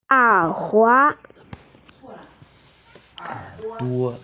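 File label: "er3duo5", Mandarin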